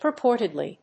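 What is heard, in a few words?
"purportedly", English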